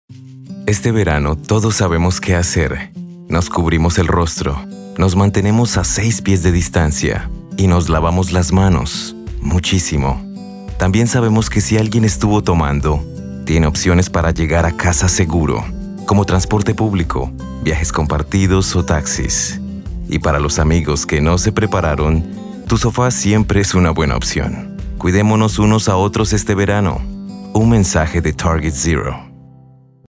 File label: Summer DUI Radio Spanish